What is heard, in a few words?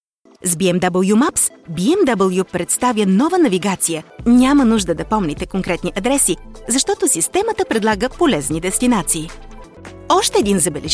female bulgarian explainer promo video; bmw